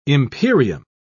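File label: "imperium", British English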